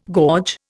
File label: "gorge", Indian